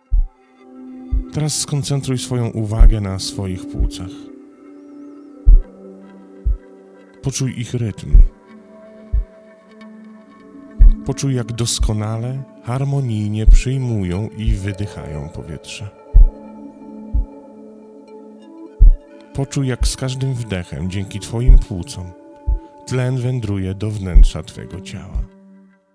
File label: meditation narration 432; separate body